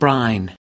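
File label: "brine", US English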